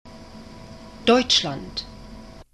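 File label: "deutschland", German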